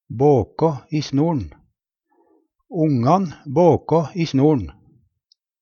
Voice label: "båkå i snor'n", Numedalsmål; leike i snøen; Ongan båkå se i snor'n.

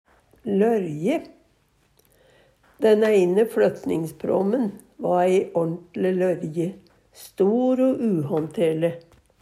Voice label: lorje, Numedalsmål; Substantiv hokjønn; lørje

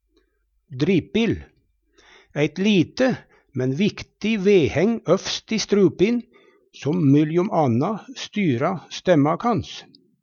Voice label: "dripil", Numedalsmål; vedheng øvst i strupin

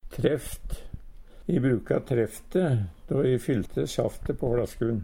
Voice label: "treft", Numedalsmål; Hushald, mat, drikke

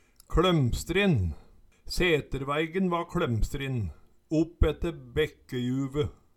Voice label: kLomstrin, Numedalsmål

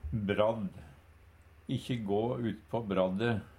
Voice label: "bradd", Numedalsmål

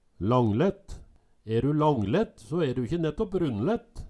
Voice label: langlett, Numedalsmål

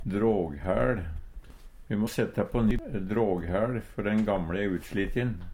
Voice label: "dråghæL", Numedalsmål; Substantiv hankjønn

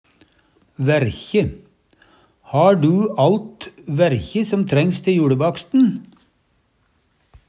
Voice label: verkje, Numedalsmål; Har du alt verkje som trengs te juLebaksten?

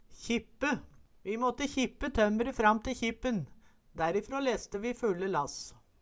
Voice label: kjippe, Numedalsmål

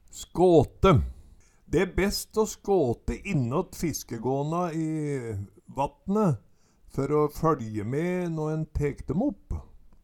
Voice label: "skåte", Numedalsmål

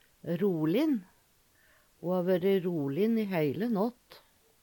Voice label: rolin, Numedalsmål; Ho ha vøre rolin i heile nått.; Adjektiv

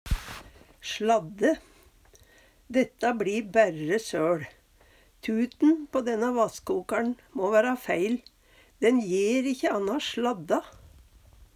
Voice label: sLadde, Numedalsmål; Eksempel på bruk Dætts bLi bærre søL. Tuten på denna vasskokar'n må væra feil. Den jer ikkje anna sLadde.

